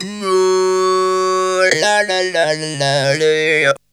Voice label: "Cow", Funky Vocals; USB Soundscan vol.18; AKAI; TB MELODY

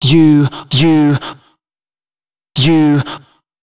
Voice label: male d#130, spoken hooks

